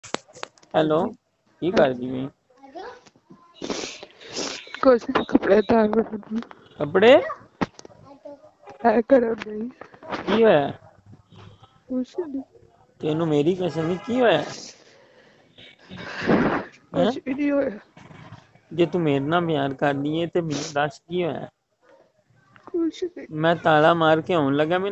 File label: Call recording